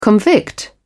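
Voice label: "convict", British English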